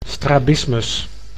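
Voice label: "strabismus", Dutch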